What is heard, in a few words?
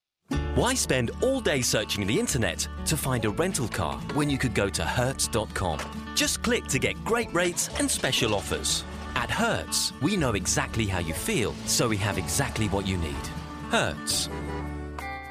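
British English, Commercial; GoLocalise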